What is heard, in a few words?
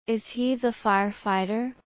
low; assessment